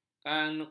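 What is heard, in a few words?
"kano", Malay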